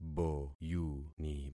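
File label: "boyuni", concatenated syllables; Affixing experiment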